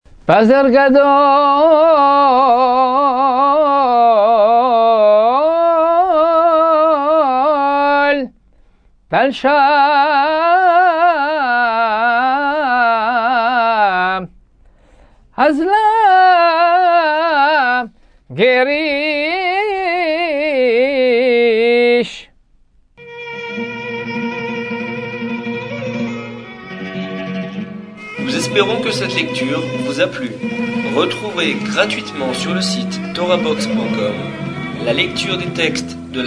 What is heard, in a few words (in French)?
apprentissage taamim selon le rite constantinois